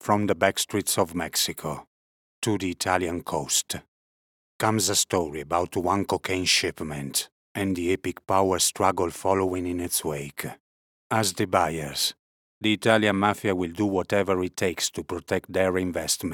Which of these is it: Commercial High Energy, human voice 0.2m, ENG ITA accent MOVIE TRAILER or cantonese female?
ENG ITA accent MOVIE TRAILER